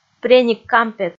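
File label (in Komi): "преник-кампет" Komi, female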